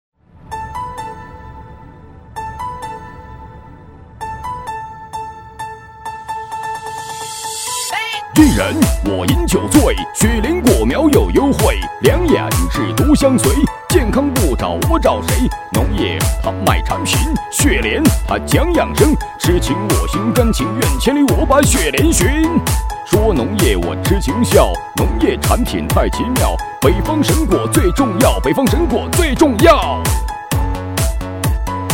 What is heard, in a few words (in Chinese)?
男 （喊麦）; 优质年轻